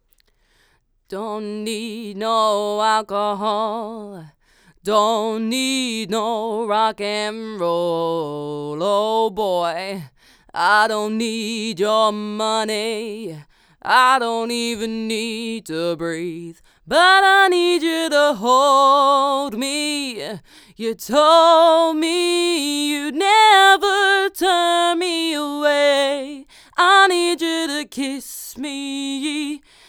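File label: Vox Shoot SE SE1A Cardioid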